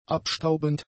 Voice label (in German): "abstaubend", German; adjectives